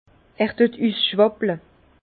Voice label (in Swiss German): "usschwapple", Bas Rhin; vin; il déborde d’alcool